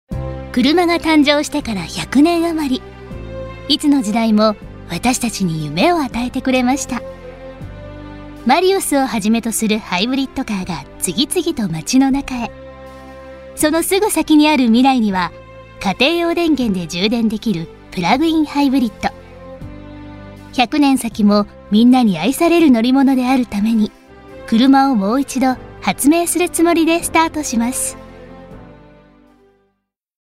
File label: soft